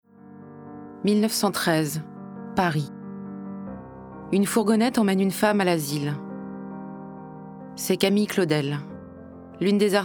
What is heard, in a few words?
NARRATION; CLAUDEL